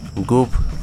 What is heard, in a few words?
"mouth", wahgi; lae unitech